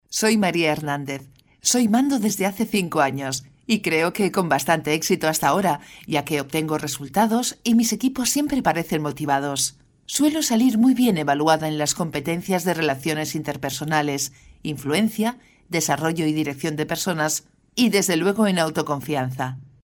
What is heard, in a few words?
Castilian; foreign languages